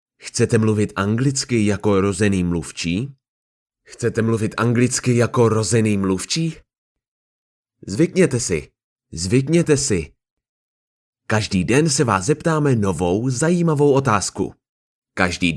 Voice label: Czech Male, Kurumsal, Reklam, Eğitim; Erkek; Çekçe